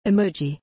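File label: "emoji", English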